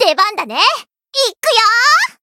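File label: Voice variable chant, Japanese; may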